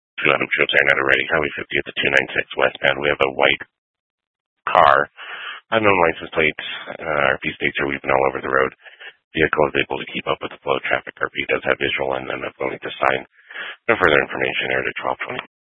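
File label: Troop 2A Dispatch, scanner audio recording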